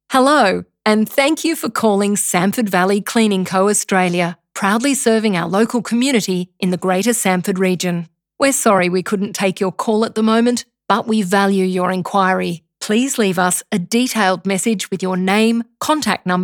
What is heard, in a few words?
Voicemail